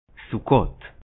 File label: "Sukkoth", Hebrew